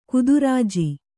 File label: "ಗೋತ್ರಜ", Kannada